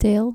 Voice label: "teil" dutch